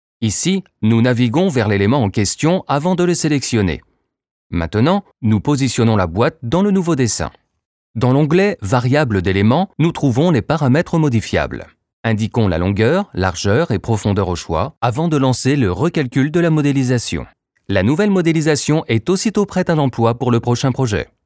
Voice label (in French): eLearning industriel